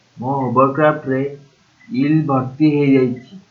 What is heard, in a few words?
hovercraft, odia; Oriya; ମୋ ହୋବର୍କ୍ରାଫ୍ଟ ରେ ଇଲ୍ ଭର୍ତି ହେଇ ଜାଇଛି।; Mo hobarkrāphṭ re il bharti hei jāichi